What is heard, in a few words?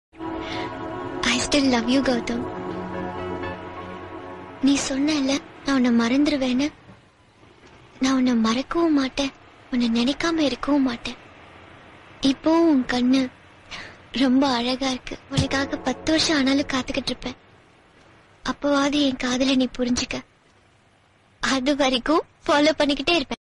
dialogue tamil ringtone; laila